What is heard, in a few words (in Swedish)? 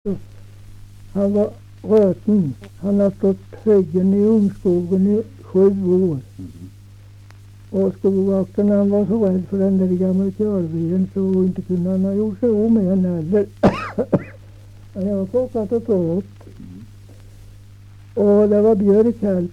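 Nysund Närke, dialekter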